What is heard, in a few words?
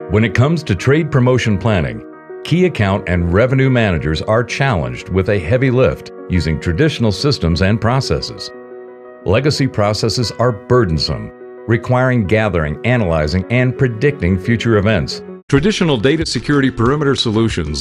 Elearning